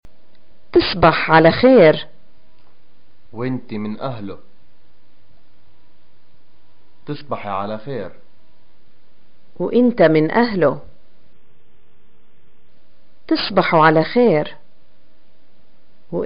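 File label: "greeting goodnight", Palestinian Arabic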